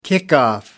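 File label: "kickoff", British English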